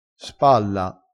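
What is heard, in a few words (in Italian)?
"spalla", vocabolario dialettale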